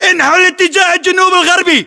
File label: direction relative sthwest, localized english battlechatter